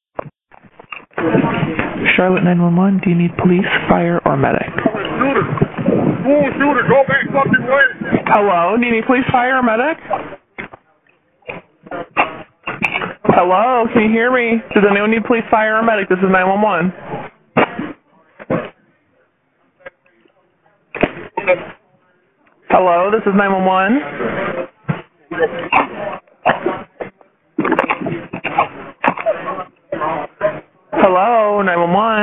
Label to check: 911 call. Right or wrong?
Right